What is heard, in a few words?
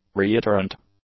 "reiterant", English; adjectives